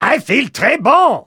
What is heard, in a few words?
spy feel good